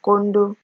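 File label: "kondo", Nigerian Pidgin, female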